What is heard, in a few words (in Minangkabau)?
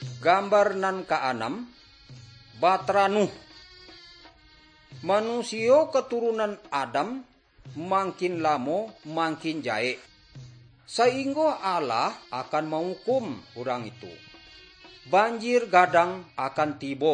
good news minangkabau